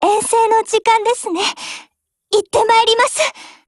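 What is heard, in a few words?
OPERATION BEGIN Japanese, Voice; GF Alarm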